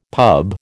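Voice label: "pub", American English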